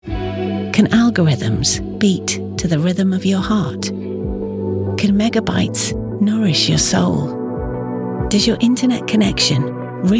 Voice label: commercial